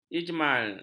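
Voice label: "ijmal", Malay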